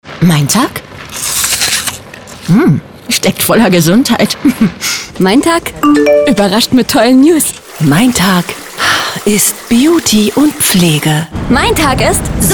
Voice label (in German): Radiospot; MeinTag